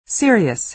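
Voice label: "serious", American English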